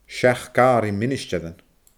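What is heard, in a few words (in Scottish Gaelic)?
"seachd aaraidh ministearan", Scottish Gaelic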